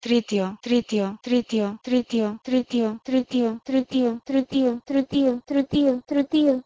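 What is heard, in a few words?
Proto-Indo-European tritiHo to Sanskrit trtiya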